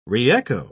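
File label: "reecho", English, British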